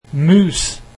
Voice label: mouse, norwegian